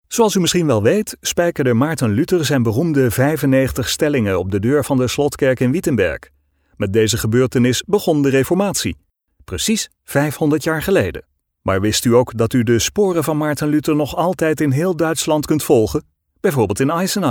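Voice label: NL vo fresh webvideo, Dutch All Round Voice Male; Martin Luther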